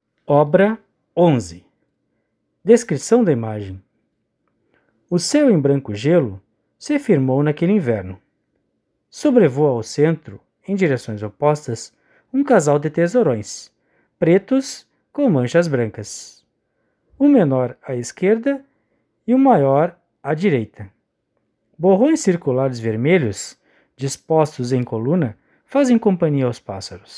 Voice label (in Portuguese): audiodescricao; Galeria virtual